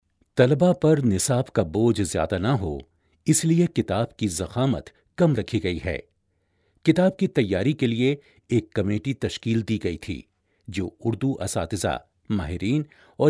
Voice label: Male Urdu